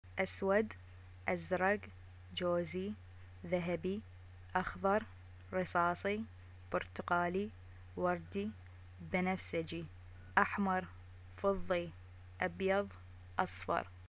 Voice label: shopping colors, arabic

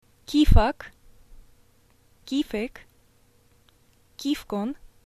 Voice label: how are you, arabic, sy

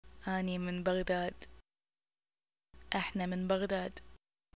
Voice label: greeting i am from, arabic

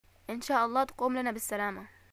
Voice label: greeting get well soon, arabic

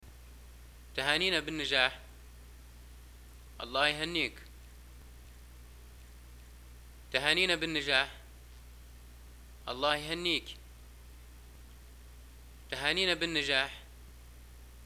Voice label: greeting graduation, arabic, ly

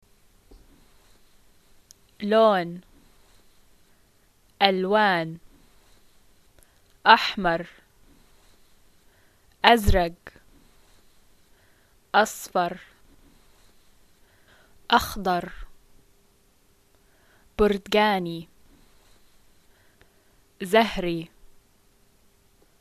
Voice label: colors, arabic levantine

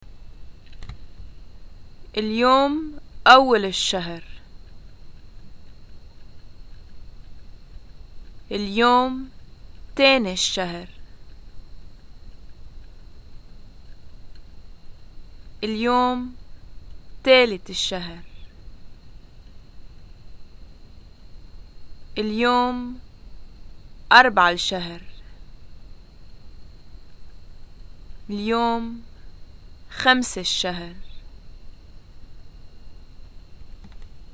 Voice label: introductions dates, arabic levantine

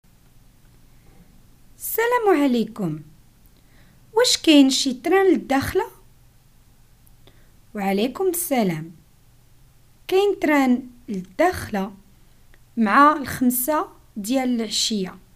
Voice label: dialogues, Moroccan Arabic; train

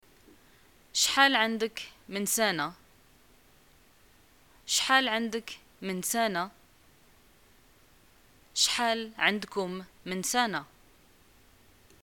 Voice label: how old r u, arabic, ma

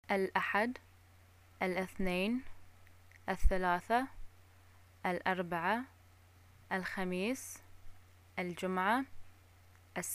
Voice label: days, arabic

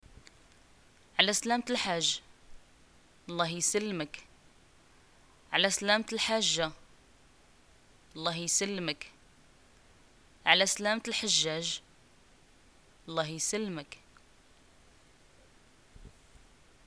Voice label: greeting hajj, arabic, ma

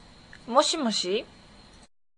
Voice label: Phone hello

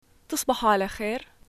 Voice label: good night, arabic levantine

